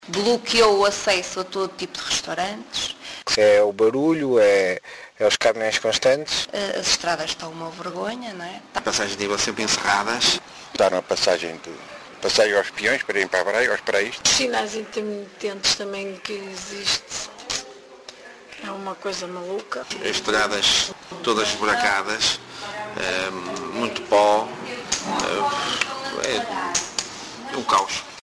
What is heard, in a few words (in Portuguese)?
vox pop; espinho; linha; Contra